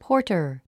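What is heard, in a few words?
"porter", English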